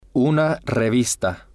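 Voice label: una Revista, Spanish, male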